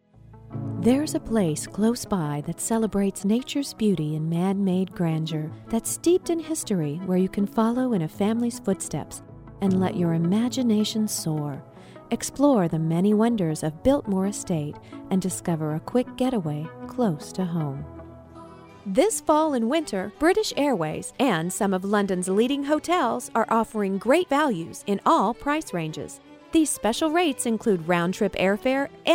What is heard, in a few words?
commercial